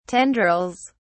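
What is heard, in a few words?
"tendrils", British English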